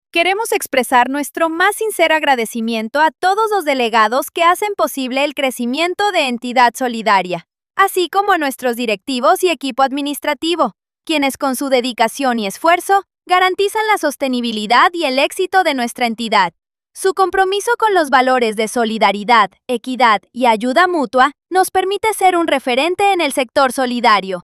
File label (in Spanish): femenino